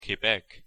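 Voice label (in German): "quebec", German; pronunciation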